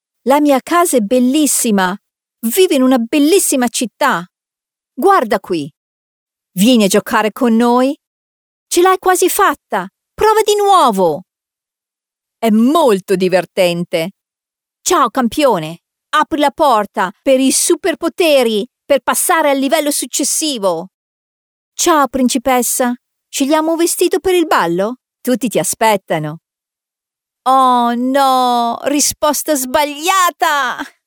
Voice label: Female Italian Toys

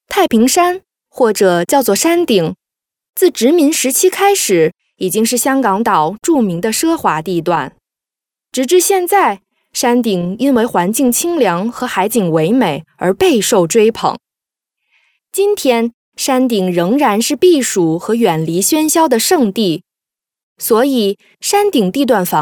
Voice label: Other Projects Chinese Mandarin Female

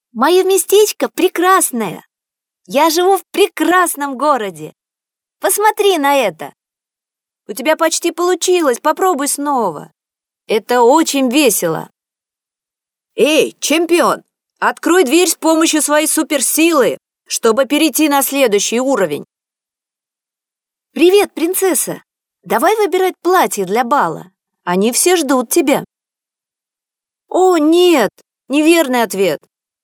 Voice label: Female Russian Toys

